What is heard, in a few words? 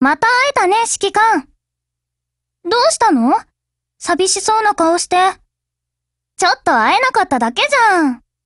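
HELLO Japanese, Voice; GF Alarm; Grizzly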